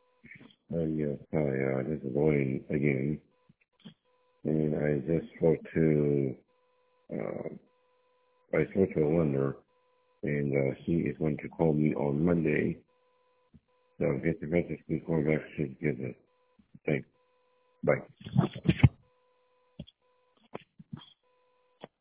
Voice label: voicemail